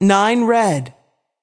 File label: voice over; american roulette 3